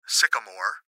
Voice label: Gps Voice, Male

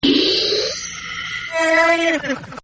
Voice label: Alien Voice; Aliens; Alien Creatures

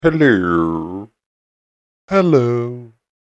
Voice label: Hello 2X Silly Voices; Talking Sound Effects; human voice sound effect; spoken word sfx; Andrew Fleming

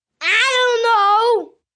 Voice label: "I Dunno", Response, 1 boy, 8 yrs.; Talking; Voice Box

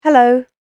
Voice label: "Hello", British Female Voice; Talking Sound Effects; spoken word

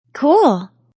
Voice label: Wmn Says Cool; A woman says; Woman Says Cool 4; Talking

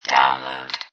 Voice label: Robot Voice "Download"; Robot Sound Effects; Lynne Music

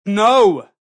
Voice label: "No" Male, Exclamation; VoiceBox

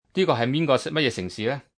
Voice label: What City, Telephone operator, Cantonese, male

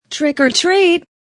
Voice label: Wmn Sys Trick or Trt, Woman Says Trick or Treat; Holiday Sound Effects

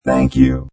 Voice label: Robot Voice "Thank You"; Robot Type 2 voice; Lynne Music

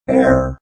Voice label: Robot Voice "Error"; Robot Sound Effects; Lynne Music

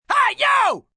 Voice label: Hey You Yell, male; Calls, attention; Talking Sound Effects

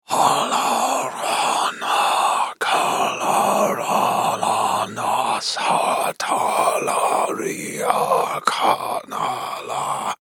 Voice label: Horror Evil Spell Voice; Evil Voices

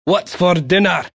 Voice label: Whats For Dinner, Male; Talking

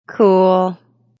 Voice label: Wmn Says Cool Mllw; Woman Says Cool Mellowly; Talking

